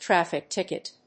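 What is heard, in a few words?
"Traffic ticket", English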